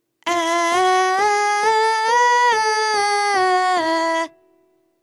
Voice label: strong mix high larynx